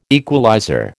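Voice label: "equalizer", American English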